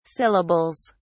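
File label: "syllables", British English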